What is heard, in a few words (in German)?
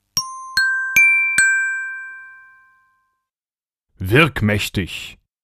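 "wirkmächtig", Deutsch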